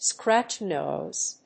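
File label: "scratch noise", English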